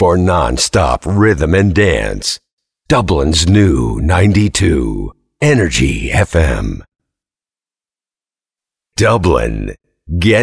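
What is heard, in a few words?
IMAGING, voice over; Dublins NEW92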